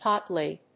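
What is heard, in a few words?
"pot-lid", English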